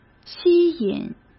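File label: "吸引", Mandarin Chinese; hsk; chinese flashcards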